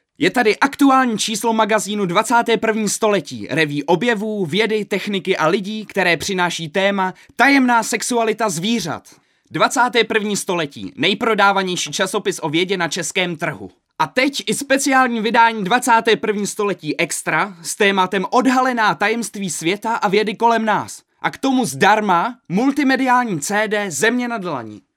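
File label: Male Czech commercial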